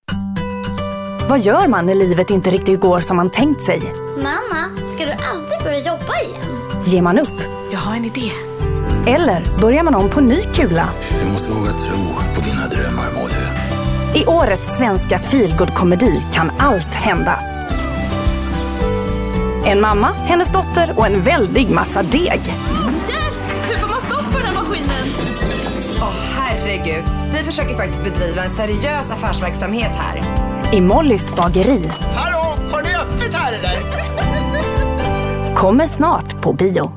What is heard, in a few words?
female, Swedish comedy trailer